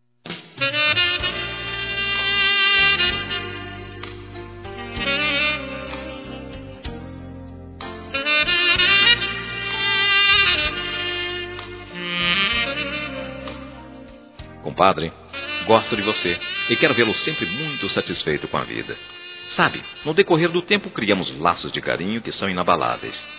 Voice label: Aniversário Comadre Masculino; Aniversários; Aniv Familiar

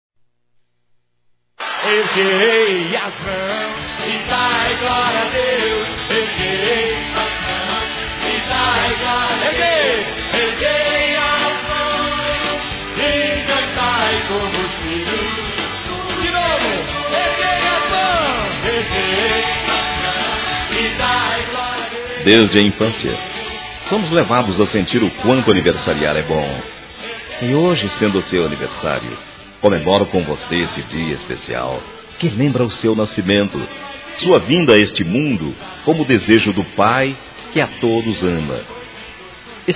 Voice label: Neutro Católico Masculino

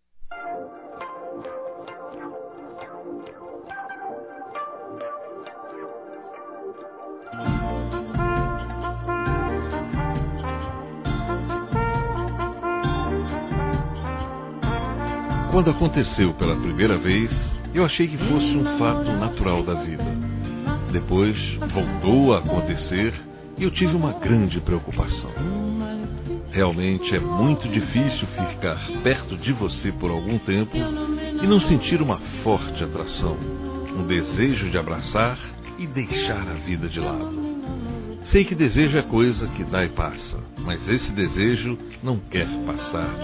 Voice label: CONQUISTA M; Telemensagens; Conquistas Cantadas paqueras; masc; Telemensagens 2017

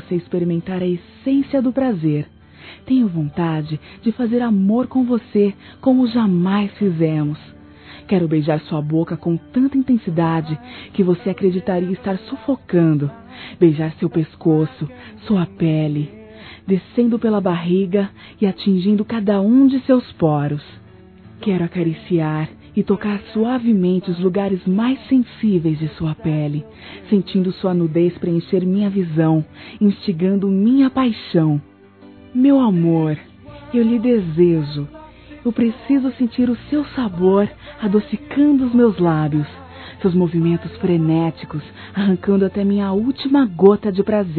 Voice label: ANIV ESPOSO, Telemensagens Sensuais fem

